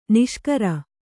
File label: "ನಿಶಿಕರ", Kannada